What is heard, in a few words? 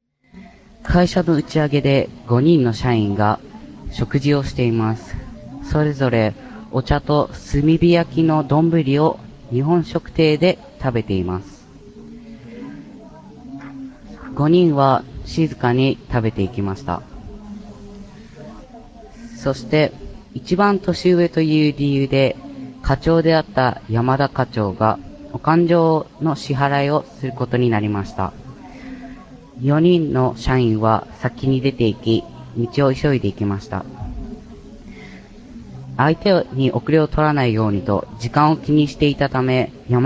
Japanese Story Narration